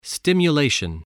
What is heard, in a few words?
"stimulation", voice